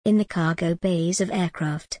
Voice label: en gb in the cargo bays of aircraft